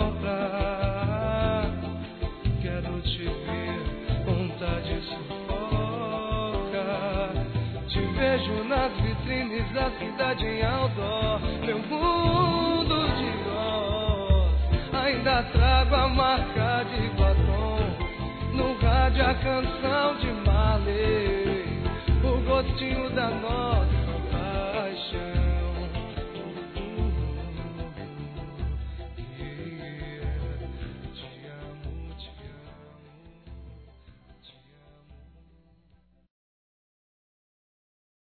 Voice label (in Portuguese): OBRIGADO POR ONTEM, masc; Telemensagens 2017